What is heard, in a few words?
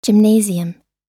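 "gymnasium", English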